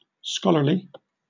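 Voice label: "scholarly", British English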